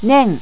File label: "nen", japanese